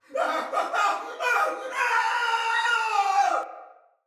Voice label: Death Fire Soldier Male; Battle; Barks; Dialog; Sinecure Soldier Male A